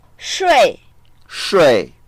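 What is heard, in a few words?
"shui4", Mandarin Chinese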